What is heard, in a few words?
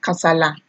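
"kasala", Nigerian Pidgin, female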